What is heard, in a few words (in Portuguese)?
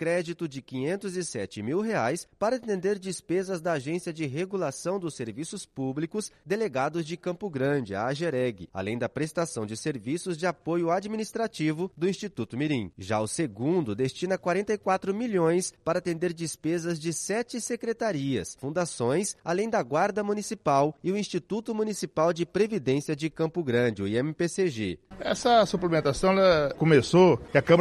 spot credito suplementar radio camara